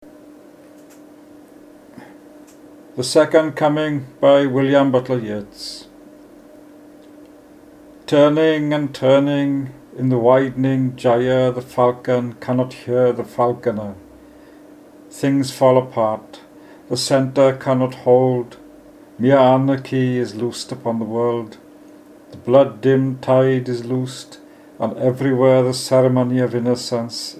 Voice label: Poetry Reading